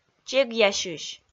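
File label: "чегъясьысь", Komi, female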